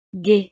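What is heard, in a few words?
"gaie", French; estados animo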